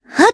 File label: Vox Casting, jp; kingsraid; voices heroes jp